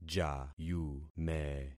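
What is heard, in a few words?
"jayume", concatenated syllables; Affixing experiment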